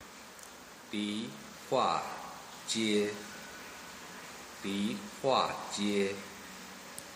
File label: dihuajie pronunciation man